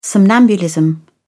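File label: "somnambulism", British English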